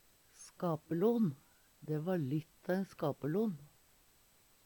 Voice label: skapelon, Numedalsmål; Eksempel på bruk Dæ va litt tå ein skapelon.

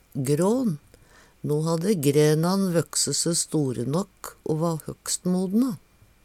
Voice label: grån, Numedalsmål; Substantiv hokjønn; Planteriket

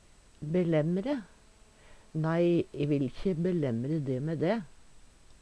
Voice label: "belæmre", Numedalsmål; Verb

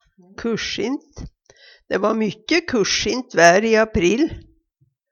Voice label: "kusjint", Numedalsmål; Adjektiv

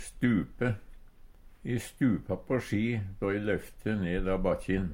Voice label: stupe, Numedalsmål; Verb

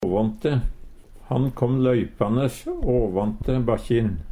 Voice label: "åvånte", Numedalsmål; ovanfrå; Han kom løypanes åvånte bakkjin.; Adverb

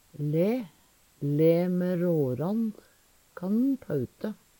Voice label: lae, Numedalsmål

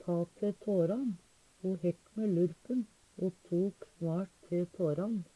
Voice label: ta te taran, Numedalsmål; Uttrykk

